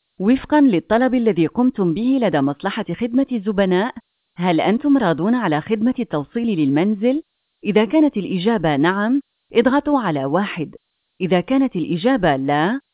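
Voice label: IVR Message AR; audios-ivr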